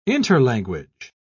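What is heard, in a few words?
"interlanguage", English, British English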